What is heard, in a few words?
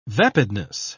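"vapidness", British English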